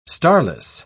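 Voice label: "starless", British English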